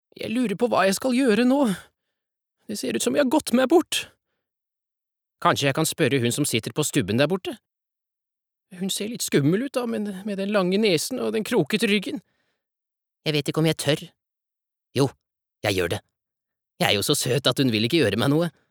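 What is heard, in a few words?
Norwegian dub character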